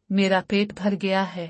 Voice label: I am full - urdu; Food and Eating